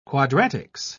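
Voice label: "quadratics", English, British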